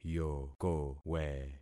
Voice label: "yokowe", concat syllables; Affixing experiment